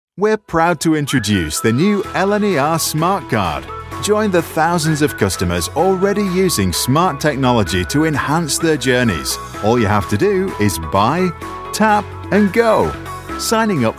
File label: explainer montage